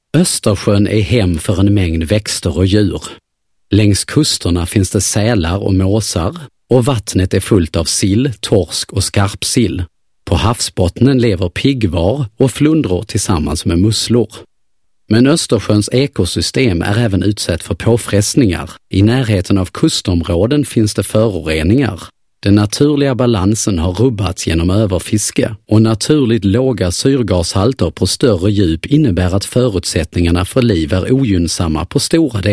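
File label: Swedish voiceover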